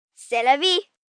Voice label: French Thats Life, Sayings, 1 child, 10 yrs, French